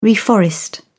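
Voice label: "reforest", English, uk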